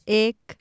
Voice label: "ek", Urdu; one

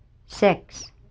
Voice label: "sex", Swedish

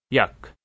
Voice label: "jak" Balochi, 1

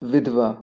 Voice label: "vidhva" Hindi

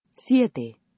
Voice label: "siete", American Spanish

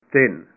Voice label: "three" Punjabi

